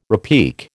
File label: "repique", American